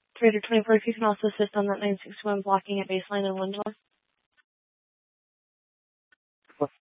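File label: Tempe police department, scanner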